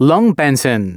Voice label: announcements male